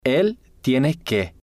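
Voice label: "el Tiene Que", Spanish, male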